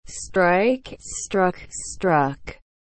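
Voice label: "strike", English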